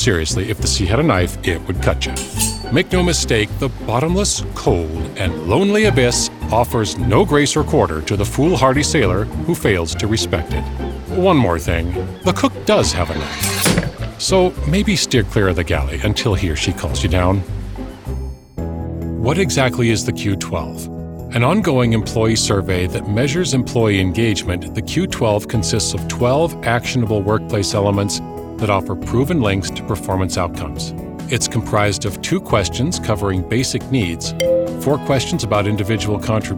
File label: E LEARNING